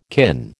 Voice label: "kin", American